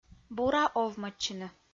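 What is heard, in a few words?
"бура овмӧдчыны", Komi, female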